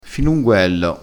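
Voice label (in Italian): "filunguello", vocabolario dialettale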